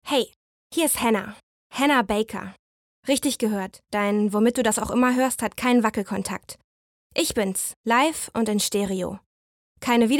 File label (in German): Monolog